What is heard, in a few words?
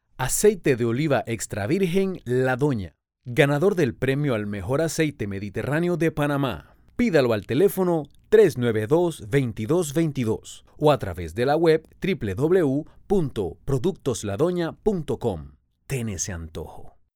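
Spanish Latin America male voice over, sales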